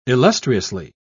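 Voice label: "illustriously", British English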